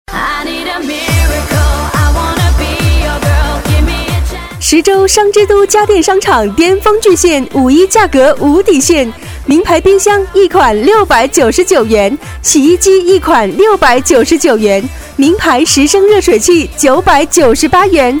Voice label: 促销 家电 女 激情

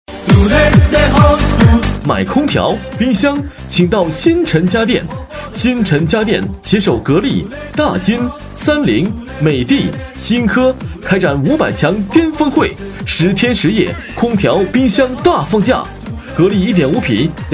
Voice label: 【促销】电器男

